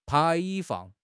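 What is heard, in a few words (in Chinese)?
"排放", Chinese; HSK6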